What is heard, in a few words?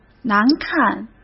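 "难看", Mandarin Chinese; hsk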